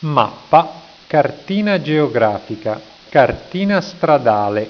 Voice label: "mappa", italiaans

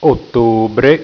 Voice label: "ottobre", italiaans; tijden

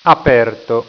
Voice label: "aperto", italiaans

